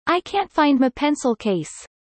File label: fast; studying abroad